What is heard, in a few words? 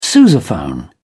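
"sousaphone", British English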